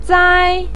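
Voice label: "zai1" Chinese syllable, pinyin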